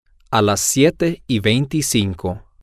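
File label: seven twenty five, Spanish, male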